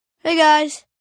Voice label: Hey Guy, Greeting, 1 boy, 7 yrs; Talking

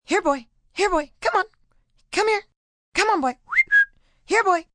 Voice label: Here Boy Come F, female; Calls, attention; Talking Sound Effects; Sound Effects:Voice; VoiceBox

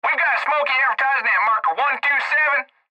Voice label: Cb Trucker Radio; Radio Voice; Blastwave

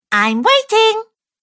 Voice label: Cartoon Girl Im Waiting; Cartoon Voice

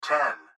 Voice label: Gps Voice, Male

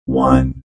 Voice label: Robot Voice "0"

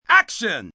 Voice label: "Action", director, male; Film, movie, direction; human voice speaking; Talking Sound Effects

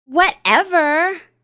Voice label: Young Lady Says Whatever; Talking Sound Effects

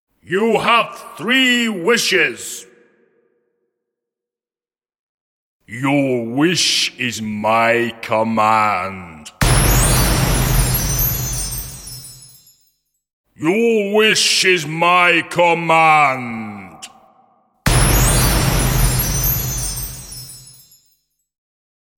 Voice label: Voice over and sound effects for Genie theme; Recorded Voice

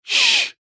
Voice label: "shh", male; Whispering; Voice

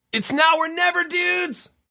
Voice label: Now Or Never Dudes, Male, Exclamation

